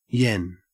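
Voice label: "yen", Australian English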